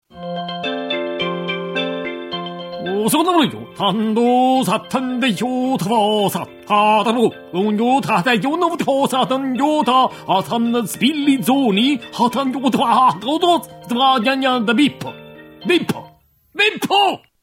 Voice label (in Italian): Segreterie Telefoniche - Segreteria giapponese